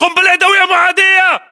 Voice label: inform incoming grenade, english battlechatter

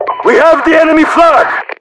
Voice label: enemy flag, voiceovers RU; mpvoice

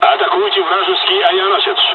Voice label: bombing, dialogs russian; War Thunder; midway

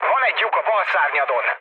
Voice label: left wing, mood low, speech hun; War Thunder

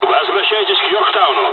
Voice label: mission fly, dialogs russian; War Thunder

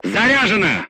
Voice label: voice message charged, crew tanks russian

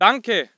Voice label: voice ger infantry; Red Orchestra 41-45

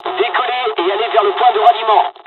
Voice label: takeoff, dialogs french; midway us; War Thunder

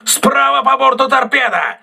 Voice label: voice message torpedo right, crew ships russian

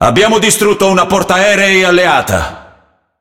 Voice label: Speech Destroy Ally Aircarrier, IT; World Of Warships

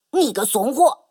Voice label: Speech Quick Commands Caramba

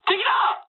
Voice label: enemy detected mood high, speech jp; War Thunder

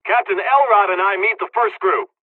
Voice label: dlg, hungarian; dialogs

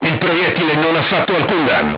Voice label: armor not pierce, crew tanks italian; War Thunder

